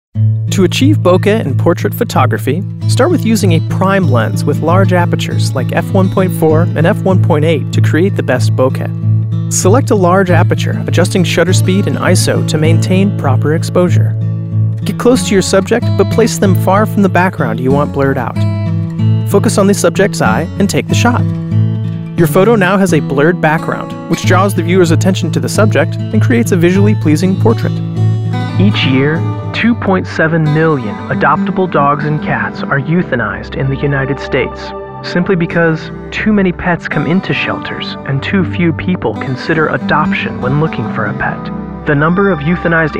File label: NARRATION; FIRESTONE